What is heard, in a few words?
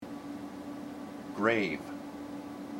"grave", IPA